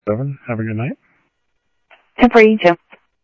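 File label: Sheriff West County, scanner audio trunk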